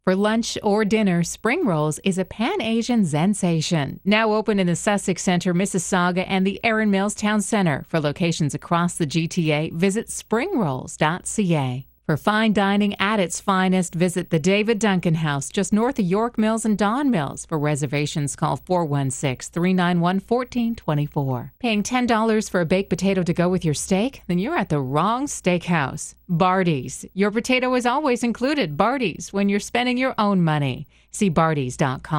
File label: commercial